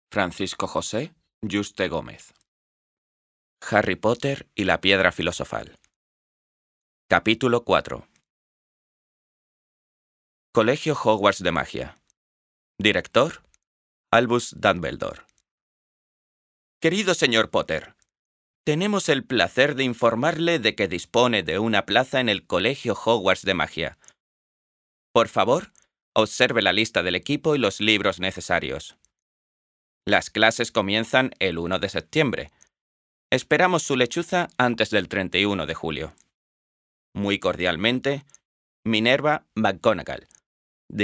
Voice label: Audiolibro

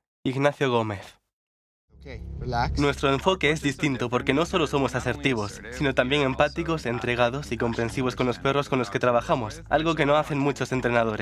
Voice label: Documental, voces

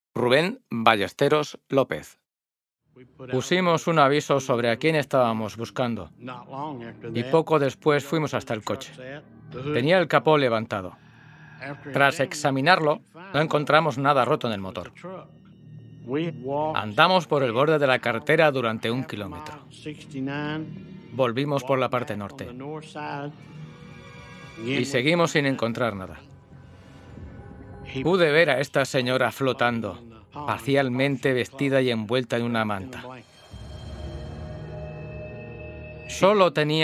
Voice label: Demo Documental

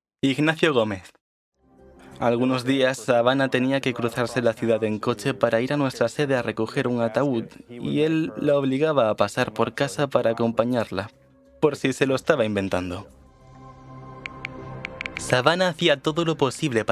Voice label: Documental

